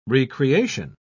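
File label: "re-creation", British English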